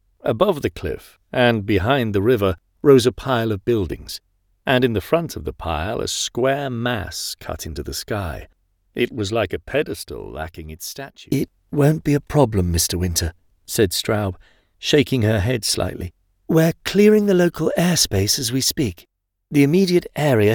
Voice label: Narration